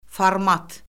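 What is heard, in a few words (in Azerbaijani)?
"format", Azerbaijani; Orfoqrafiya Lüğəti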